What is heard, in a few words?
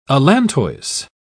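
"allantois", English, British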